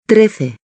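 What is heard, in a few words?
"trece", Spanish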